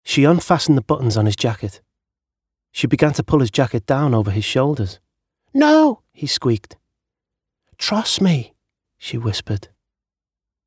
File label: Audiobook; Skellig